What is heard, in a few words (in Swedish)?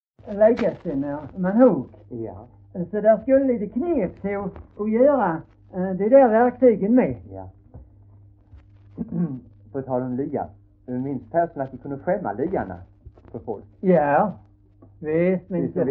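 Hörja Skåne, dialekter; Folkminnen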